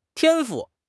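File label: "天赋", Mandarin Chinese; HSK6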